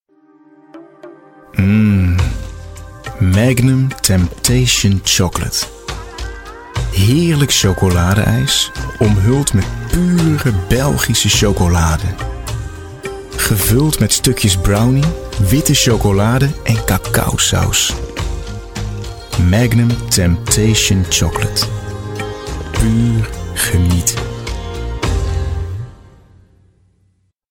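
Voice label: Dutch warm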